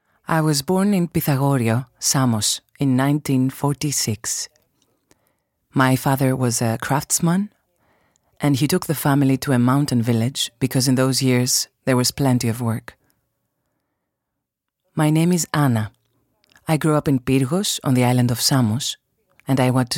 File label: European voiceover dubbing